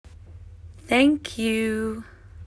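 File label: "Thank you", English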